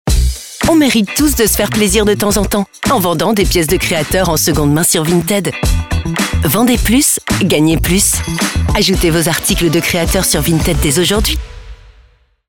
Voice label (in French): Pub Radio; Vinted